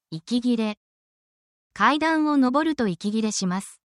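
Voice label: Japanese word, essential Japanese phrases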